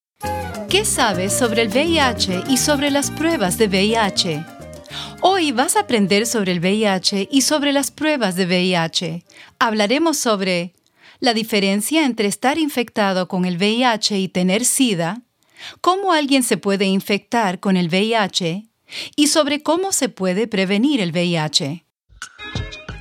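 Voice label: Voice Talent Narration Spanish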